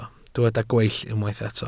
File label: "gweill", Welsh